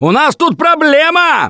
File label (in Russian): warn tank, left4dead2 russian, Survivor Voice; Gambler